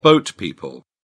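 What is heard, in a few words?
"boat people", British English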